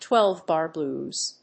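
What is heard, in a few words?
"Twelve bar blues", English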